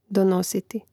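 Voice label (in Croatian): "donositi", Hrvatski; mreznik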